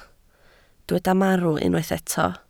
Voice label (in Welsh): "marw", Welsh